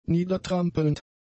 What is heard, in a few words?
"niedertrampelnd", German; adjectives